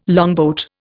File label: "longboat", Indian